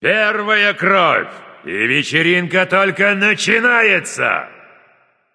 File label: kill streak first blood, russian; caster